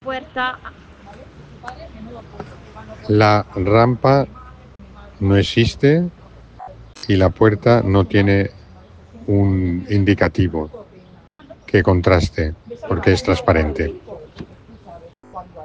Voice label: Voice message